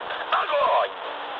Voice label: attack position, mood med, speech ru; War Thunder